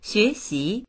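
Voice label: "xue2xi2", Mandarin Chinese; 学习; 学習; 学ぶ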